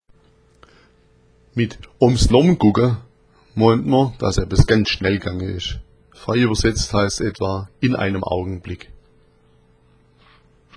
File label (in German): omms nomm, schwaebisch